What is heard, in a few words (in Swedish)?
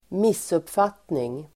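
"missuppfattning", Swedish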